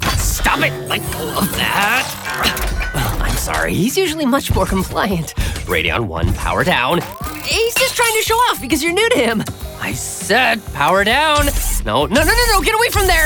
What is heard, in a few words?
Animation, voiceover